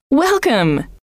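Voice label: "welcome", female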